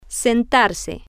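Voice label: Spanish, to sit down, female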